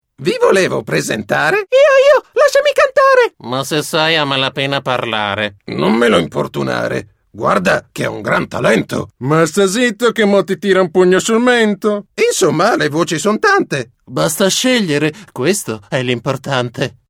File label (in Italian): caratterizzazioni